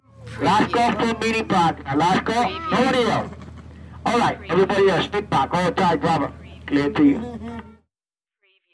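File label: Shuttle Announcement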